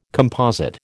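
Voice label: "composite", American English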